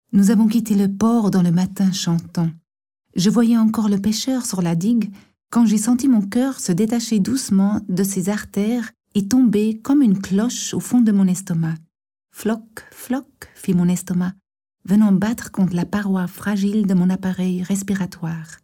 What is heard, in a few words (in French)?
Erzaehlung, franz